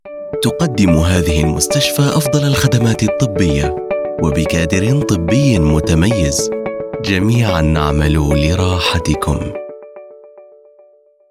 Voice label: Hospital Commercial Articulate Formal Standard Arabic